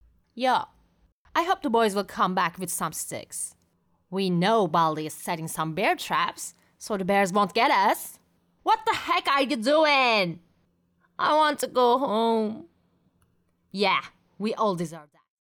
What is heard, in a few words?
eng young